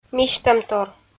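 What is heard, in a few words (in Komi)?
"мисьтӧмтор", Komi, female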